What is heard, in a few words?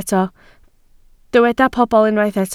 "pobl", Welsh